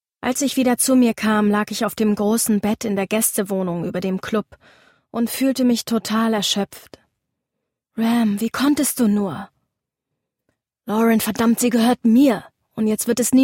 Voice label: Mystisch; Elbenlicht; LOFT VOICES

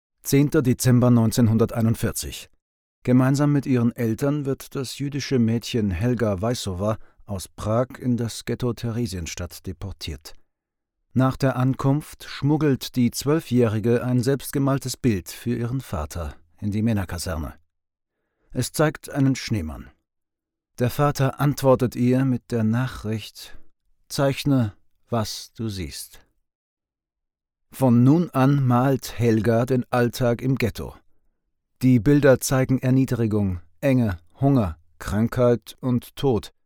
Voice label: audioguide

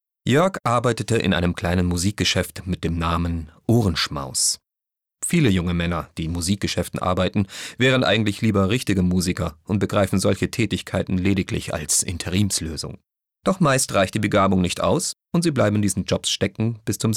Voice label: Lesung

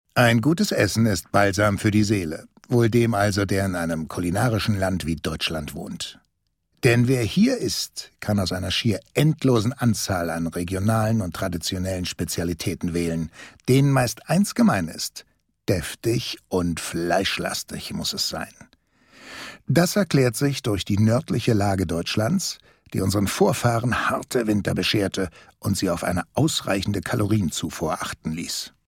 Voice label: kommentar

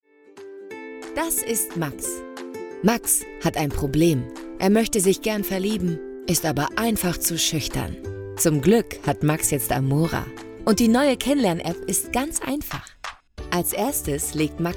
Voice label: Erklaervideo

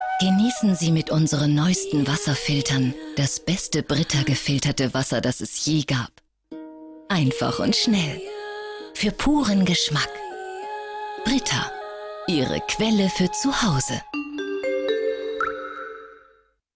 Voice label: werblich verfuehrerisch; wasserfilter

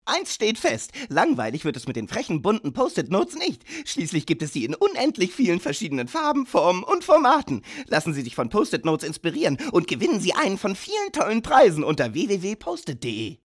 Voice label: trickstimme

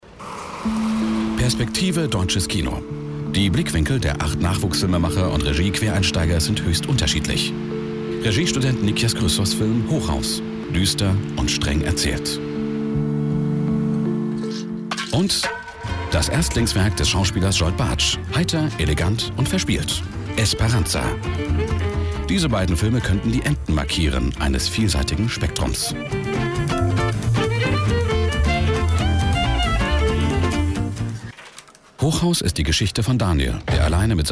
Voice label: kommentar

